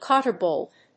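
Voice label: "cotter bolt", English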